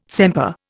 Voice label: "sempre", Indian